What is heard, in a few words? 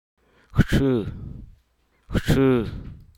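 Hruso Aka; men's basket back pack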